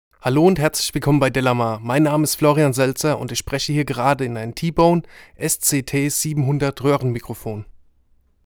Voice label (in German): tbone sct700 sprache m